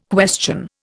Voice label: "question", Indian

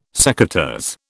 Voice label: "secateurs", British

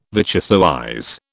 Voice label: "vichyssoise", British

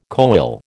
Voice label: "koel", American

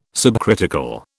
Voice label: "subcritical", British

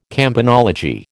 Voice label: "campanology", American

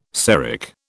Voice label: "Seric", British

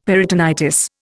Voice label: "peritonitis", Indian; Dictionary

